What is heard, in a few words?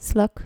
"slak", Dutch